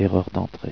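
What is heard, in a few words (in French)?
"erreur", French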